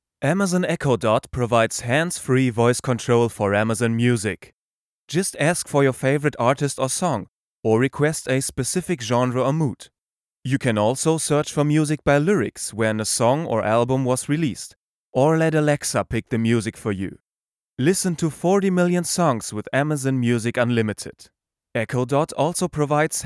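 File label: German accented English; GoLocalise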